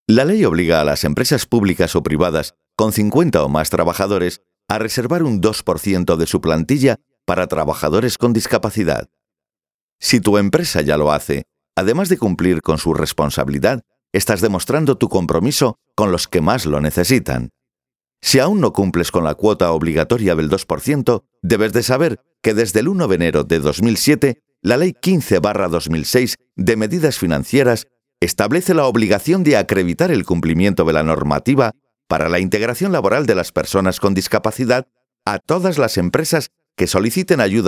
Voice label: LOCUTOR TEXTO CORPORATIVO

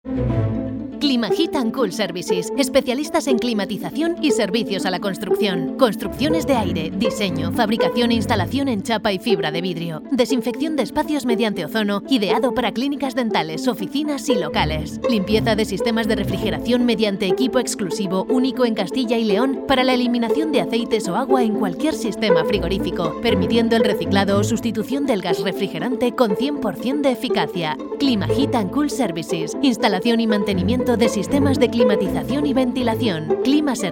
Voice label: LOCUTORA CUÑA

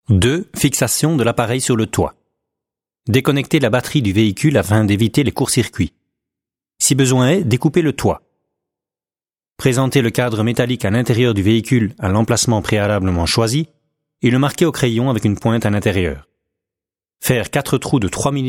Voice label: TONO INFORMATIVO

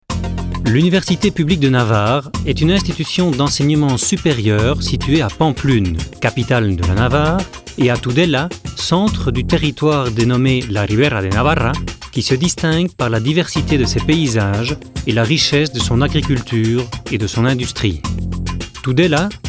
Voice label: TONO SPOT

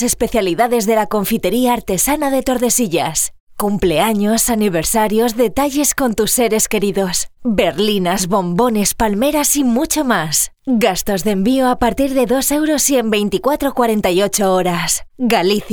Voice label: LOCUTORA CUÑA PASTELERÍA

